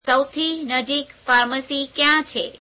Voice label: gujarati, Where is the closest pharmacy

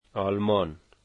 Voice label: Germany, Dari

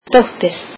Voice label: icelandic daughter

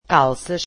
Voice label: portuguese trousers

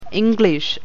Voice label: malayalam

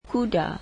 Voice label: malay horse

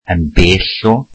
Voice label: welsh far from

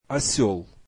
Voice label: russian donkey

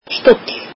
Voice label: "chair", Icelandic

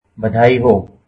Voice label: Congratulations, hindi

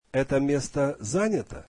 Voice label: russian Is this seat taken

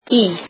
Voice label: icelandic in

